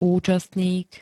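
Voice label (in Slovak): "účastník", Slovak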